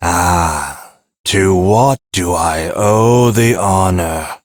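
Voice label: Ambient Male Sweeper, Dialog; Duviri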